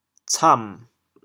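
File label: "cam3", Teochew, chaoyang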